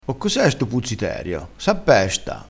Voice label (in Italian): "puzziterio", dialettale, crespinese